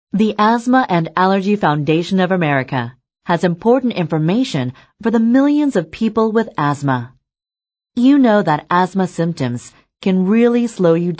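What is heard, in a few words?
American English, female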